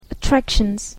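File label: "attractions", English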